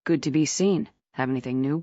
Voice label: fem